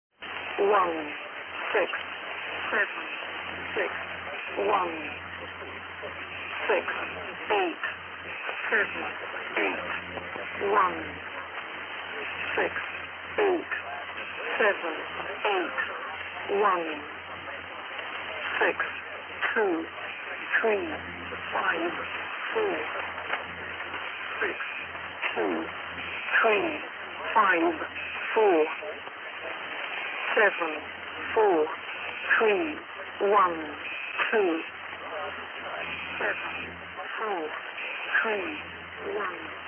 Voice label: Unknown number station, 7013 KHz